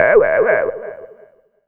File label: VOICE, VOICE-FX